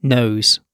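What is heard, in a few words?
"knows", British English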